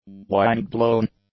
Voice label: "wind-blown", English; adjectives